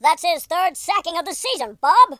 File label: "oi nutter", US Sports speech